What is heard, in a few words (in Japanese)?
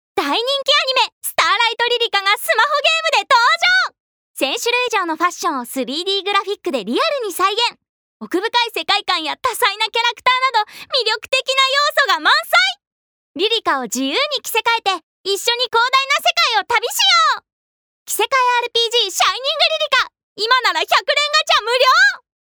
ナレーション